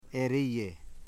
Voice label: "didnt see", Foe